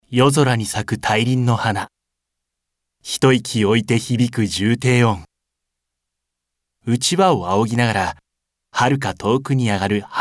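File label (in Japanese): narration, ボイスサンプル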